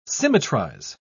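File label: "symmetrize", English, British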